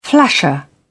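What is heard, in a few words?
"flasher", British English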